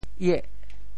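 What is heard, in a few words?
"ieh8", Teochew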